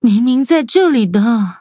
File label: loading, zh-hant; Cookie Run